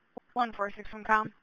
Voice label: Police Sheriff Dispatch